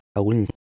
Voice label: "awlh1t" PIE

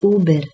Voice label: "uber", Proto Germanic

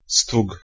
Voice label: "stog", Polish

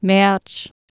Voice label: "mearc", Anglo Saxon

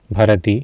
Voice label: "bharati", Sanskrit; भारती bharati

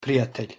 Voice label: "prijatelj" Bosnian

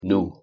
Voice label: "nu", Anglo Saxon

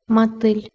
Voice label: "matylj", Belarusian

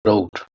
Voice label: "root", Middle English

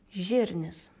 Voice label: "Zirnis", Lithuanian

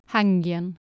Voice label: "hangian", Anglo Saxon

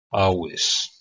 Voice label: "avis", Latin

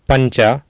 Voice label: "paNca", Sanskrit

